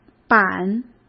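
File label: "版", Mandarin Chinese; hsk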